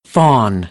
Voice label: "fawn", American; AmericanBritish